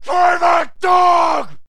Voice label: man insult; Mount&Blade Warband

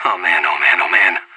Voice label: scared emote; Counter-Strike Global Offensive

